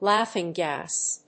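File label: "laughing gas", English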